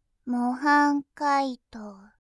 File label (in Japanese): 「模範解答」, ASMR